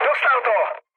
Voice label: aircraft destroyed, speech cze, mood low